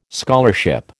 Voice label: "scholarship", American